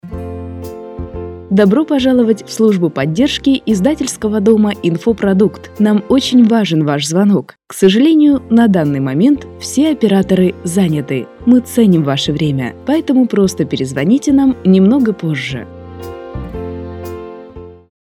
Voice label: IVR

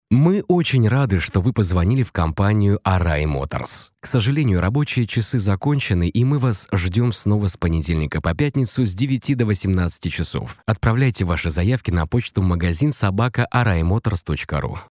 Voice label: IVR

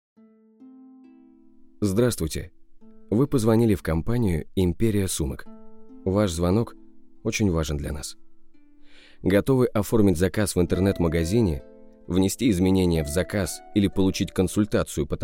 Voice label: IVR

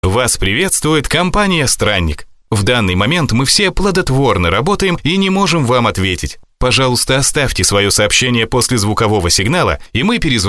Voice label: IVR

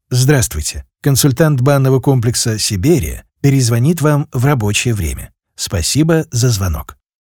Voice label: IVR; Siberia

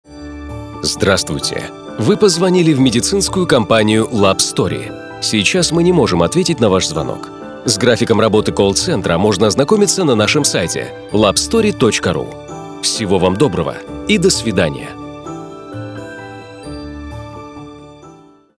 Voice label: IVR

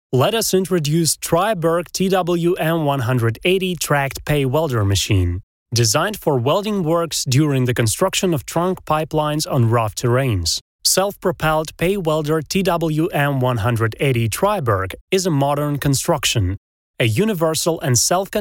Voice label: ENG

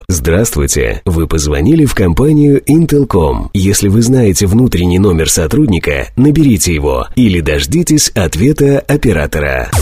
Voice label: IVR

